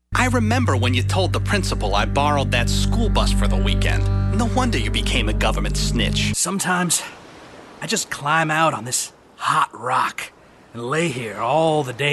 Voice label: character; character demo